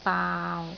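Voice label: "baau6", Cantonese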